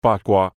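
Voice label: "八卦", Cantonese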